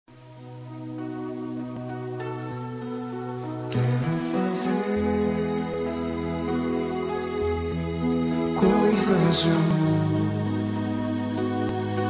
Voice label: Romântica Sensual

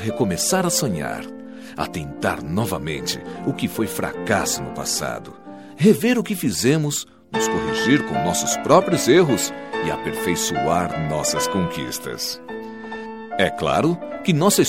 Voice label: Feliz Ano Novo, M

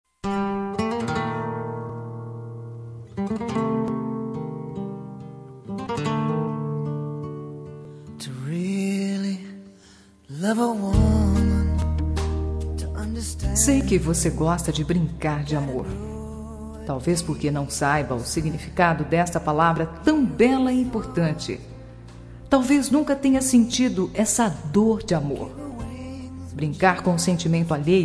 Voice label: Romântica, F; Brincar de Amor

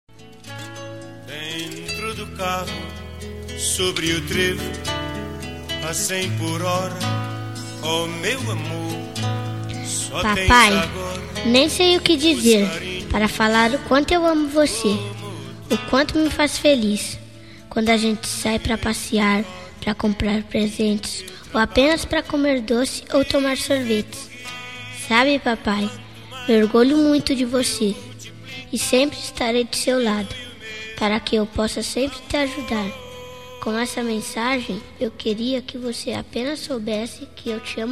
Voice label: Dia dos Pais M Infantil, telemensagens

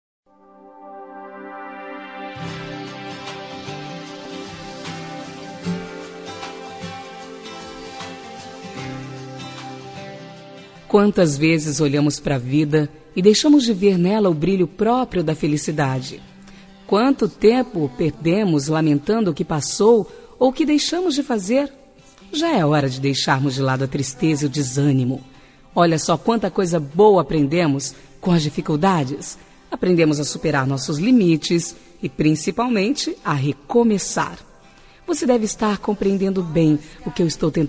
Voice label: Amizade Com Otimismo, F

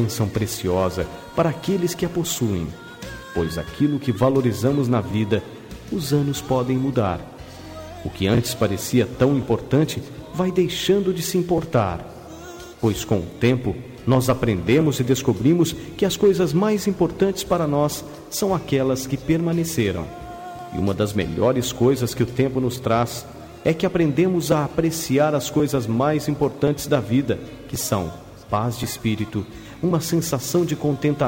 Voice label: Amizade Com Pedido de Desculpas, M

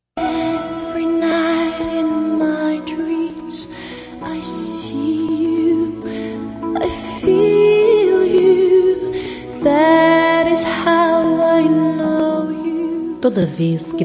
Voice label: Romântica, F

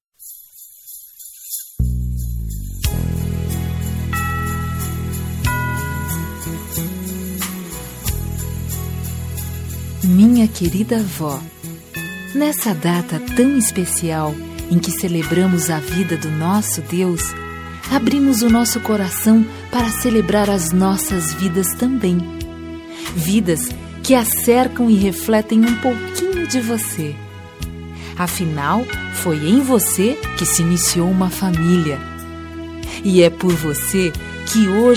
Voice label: Feliz Natal pra Avo, F

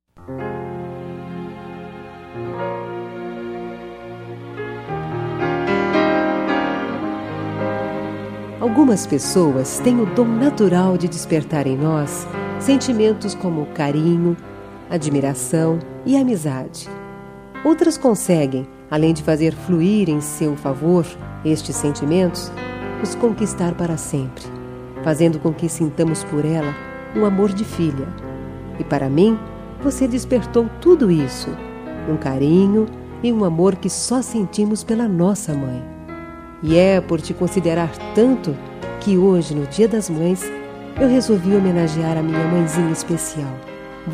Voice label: Dia das Maes de Consideracao, F

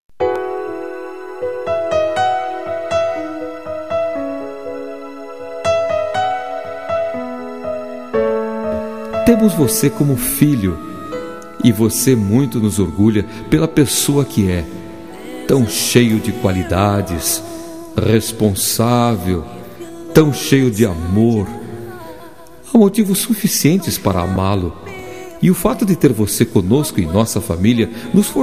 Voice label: Aniv. de Genro, M

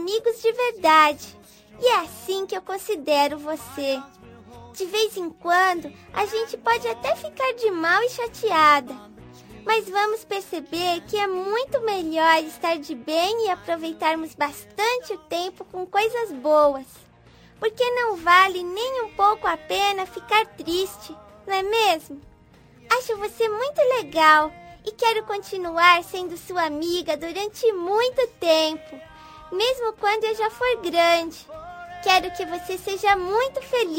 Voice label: Dia da Amizade F Infantil

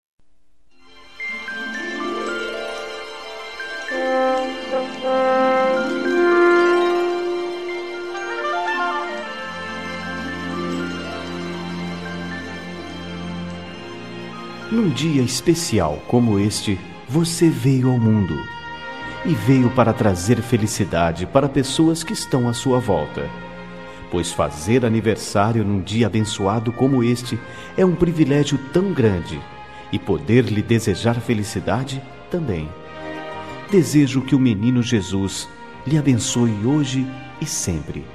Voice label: Feliz Natal e Feliz Aniversario, M

